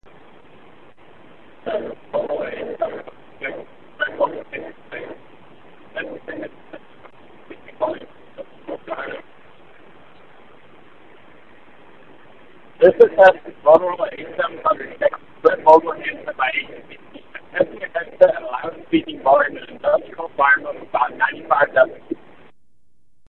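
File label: industrial 95db, Bluetooth headset; Moto H700B; Mogul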